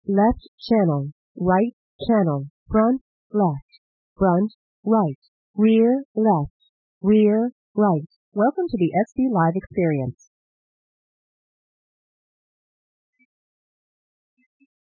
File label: female train noise